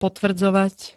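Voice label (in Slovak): "potvrdzovať", Slovak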